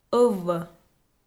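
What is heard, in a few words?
"ӧввӧ", Komi, female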